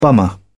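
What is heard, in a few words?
"bummer", British English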